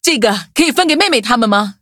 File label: levelup zh-hant; cookie run